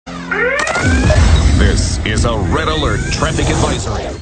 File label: Red Alert Traffic Advisory; 96.5 WDBO-FM